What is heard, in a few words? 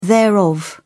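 "thereof", British English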